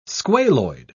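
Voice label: "squaloid", English, British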